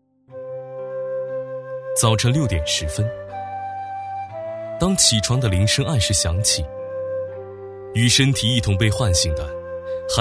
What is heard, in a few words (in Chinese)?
男 专题配音 学校 叙述; 科技品质; 高端大气; 男391-专题配音【学校】叙述